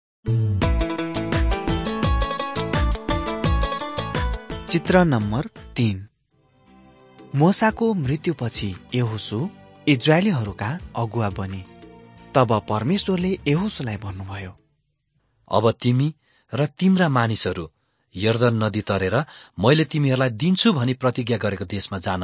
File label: victory through god nepali